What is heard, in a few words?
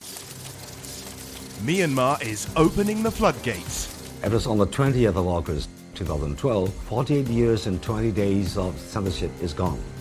UK English Media Promo; Male; CNA